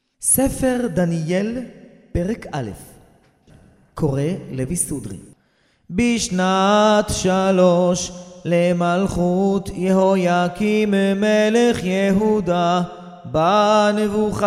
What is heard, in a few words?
recorded tanach daniel sefardi moroccan